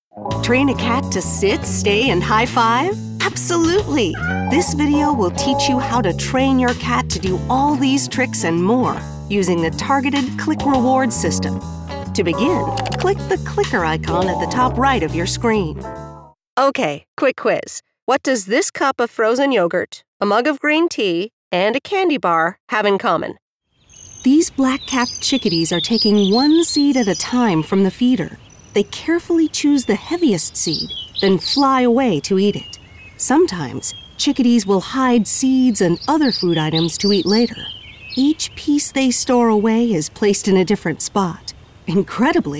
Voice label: Kids eLearning

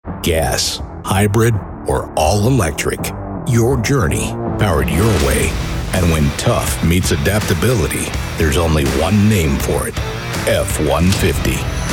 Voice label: Commercial

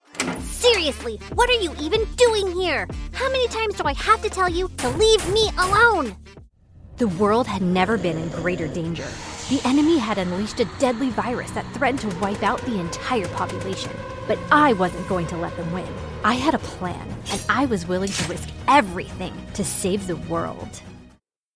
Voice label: Character, Voice Over

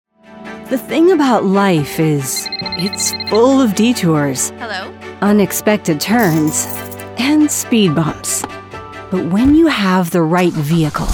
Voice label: Commercial VO; Kia

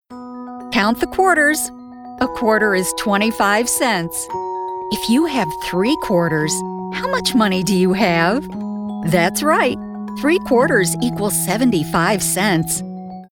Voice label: Children's E-Learning; E-Learning Demo

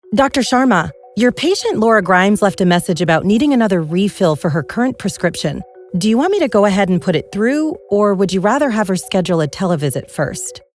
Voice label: Medical Narration Doctor Conversation

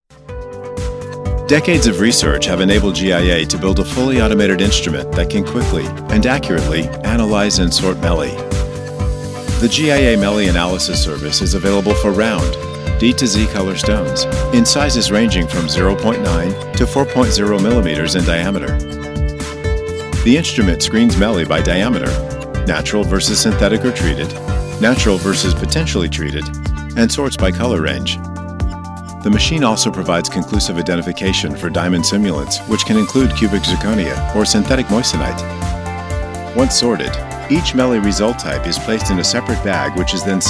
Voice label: Explainer Video Narration

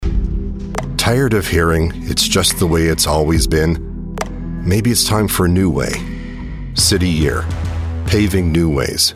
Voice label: Commercial; CITY YEAR

